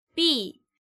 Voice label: "Bbi", Korean